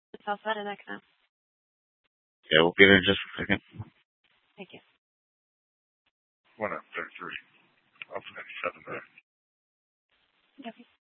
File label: Mesa PD Central Dispatch, Scanner; TOPAZ Regional Wrlss Cooperative (TRWC) - Mesa Simulcast